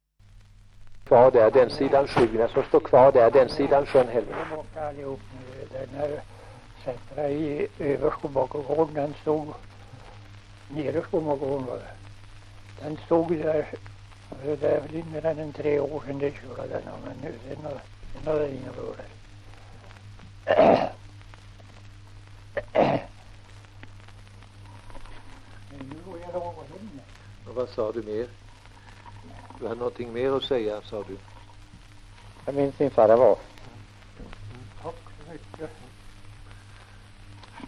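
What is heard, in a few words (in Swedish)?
Sunnemo Värmland, dialekter; Folkminnen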